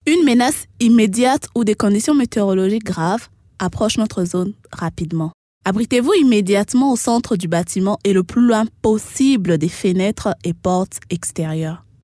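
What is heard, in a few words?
French African Female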